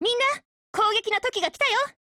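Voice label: ATTACK, Japanese; MP443 Mod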